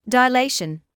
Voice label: "dilation", English

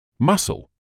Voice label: "muscle", English

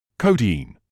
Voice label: "codeine", medical english uk; ˈkəʊ.diːn; KOH-deen

